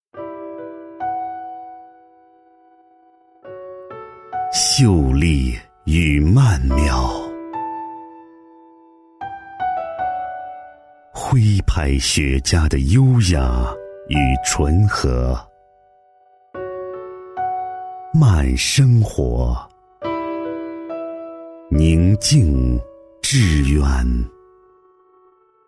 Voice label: 男 广告 优雅磁性, 国语男声配音, 浑厚

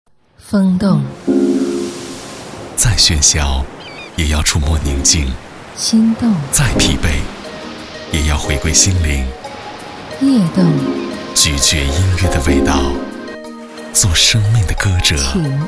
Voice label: 男 电台片花 磁性优雅

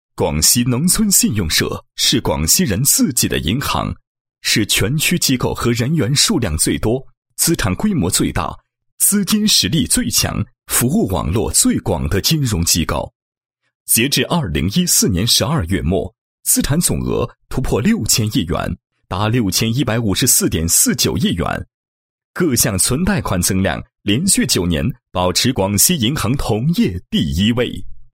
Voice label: 男 专题 宣传介绍; 农村信用社宣传介绍

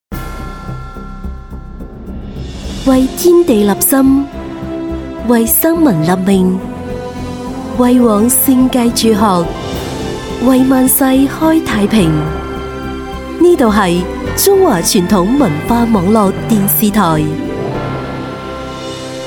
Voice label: 粤女 台呼; 中华传统网络电视台

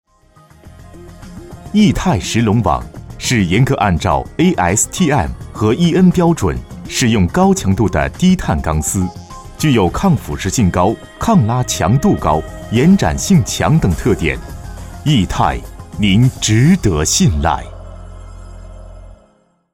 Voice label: 男 广告, 国语男声配音 温情人物专题; 亿泰

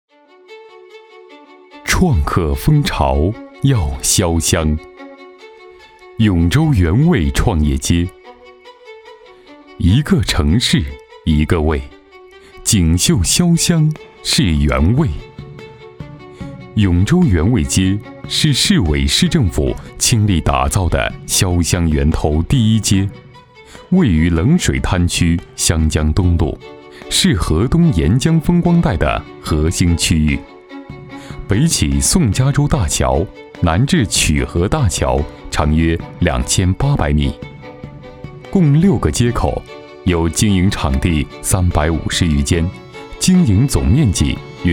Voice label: 男 专题 城市介绍类

